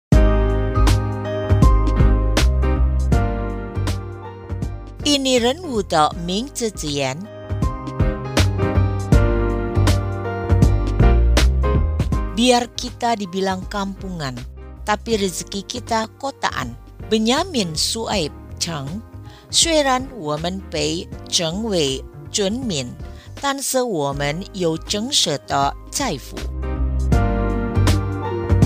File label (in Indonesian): Kata Bijak mandarin